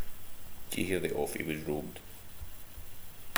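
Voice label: "offy", slang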